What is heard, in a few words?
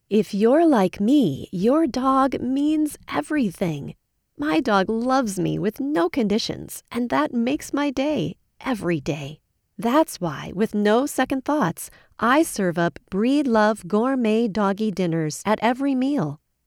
Ad Dog food